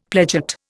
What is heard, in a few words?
"pledget", Indian; Dictionary